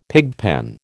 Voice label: "pigpen", American